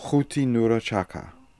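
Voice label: "Khooti Noora Chaka", pronunciations; Fourth Way; Beelzebub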